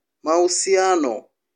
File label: "mahusiano", Swahili